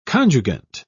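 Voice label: "conjugant", British English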